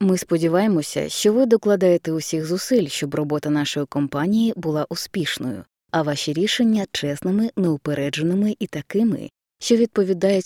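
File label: Ukrainian narration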